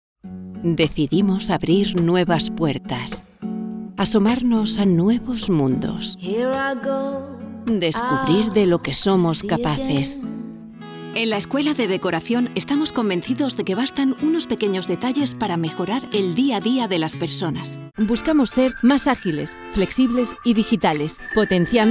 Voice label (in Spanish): Spanish corporate videos